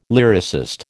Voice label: "lyricist", American